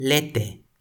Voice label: "lete", Toki Pona

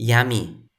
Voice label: "jami", Toki Pona

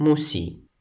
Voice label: "musi", Toki Pona

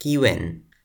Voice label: "kiwen", Toki Pona

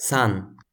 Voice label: "san", Toki Pona

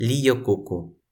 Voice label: "lijokuku", Toki Pona

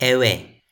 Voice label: "ewe", Toki Pona

